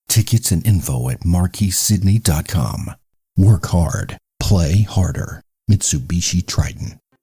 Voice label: TRAILER